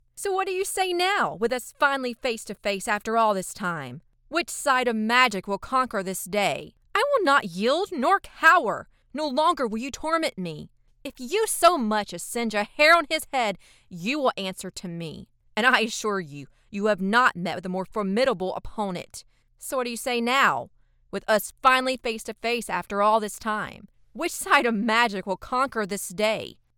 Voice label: Animation

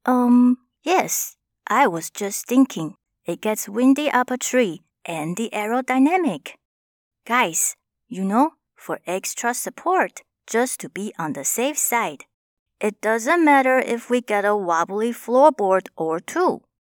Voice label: english with chinese accent; female voice in Mandarin Chinese

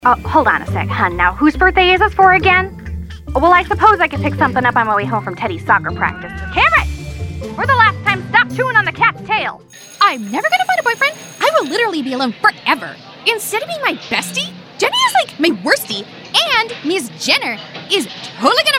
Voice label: Animation

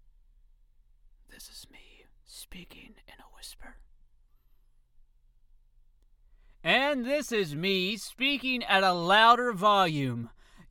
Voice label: Sound Booth Test

